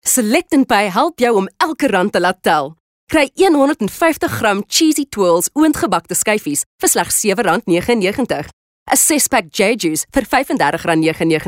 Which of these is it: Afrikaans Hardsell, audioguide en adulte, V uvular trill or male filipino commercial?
Afrikaans Hardsell